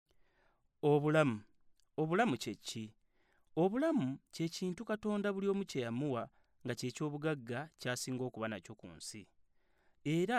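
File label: Luganda, General; GoLocalise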